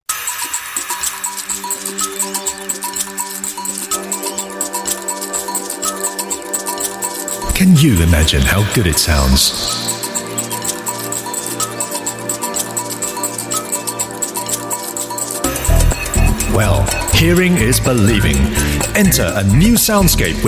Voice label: UK English Corporate DJ, Male; Philips Digital Wi-Fi